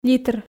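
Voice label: "литр", Komi, female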